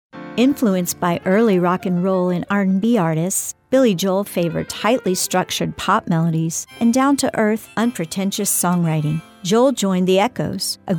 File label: narration